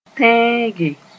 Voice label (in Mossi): "tẽege", Moore